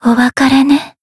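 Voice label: voice lancer finish, Japanese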